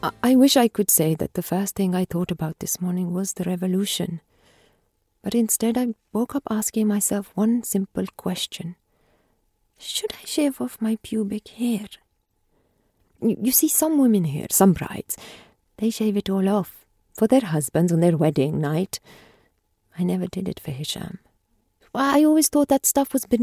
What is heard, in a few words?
Audiobooks